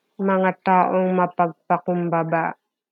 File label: Tagalog